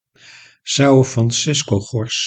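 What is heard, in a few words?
"saofranciscogors", Dutch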